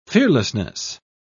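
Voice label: "fearlessness", British English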